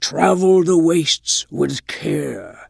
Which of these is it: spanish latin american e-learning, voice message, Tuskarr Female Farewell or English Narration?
Tuskarr Female Farewell